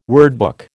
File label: "wordbook", American